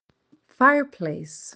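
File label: "fireplace", English; useful words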